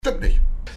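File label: "stimmt nicht", German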